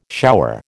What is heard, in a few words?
"shower", American